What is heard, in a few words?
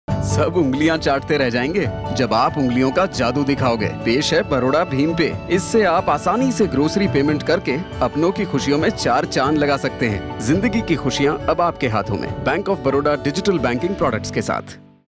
Male Hindi